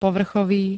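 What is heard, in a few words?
"povrchový", Slovak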